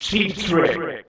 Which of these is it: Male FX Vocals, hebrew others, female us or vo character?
Male FX Vocals